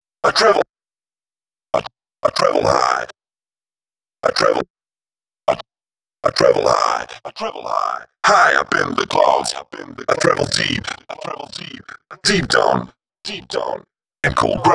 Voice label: male c120, spoken hooks; Mutekki Media; Dance Ultra Voice VOL-1